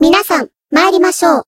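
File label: limitbreak, Japanese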